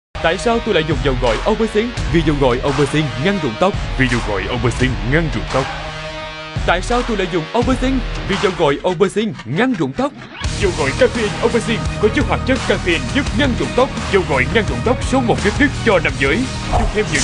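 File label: Vietnamese South TVC Dầu gội male; Alpercin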